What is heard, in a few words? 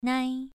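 "nai", Mandarin Chinese syllable, second tone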